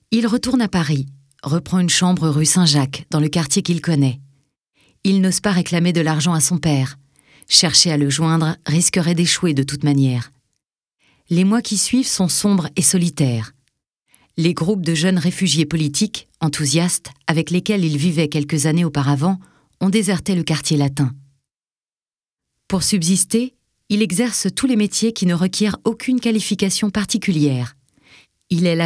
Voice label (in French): Audio livre; Lautre Joseph